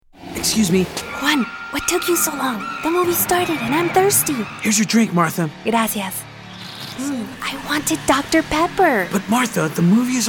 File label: English, females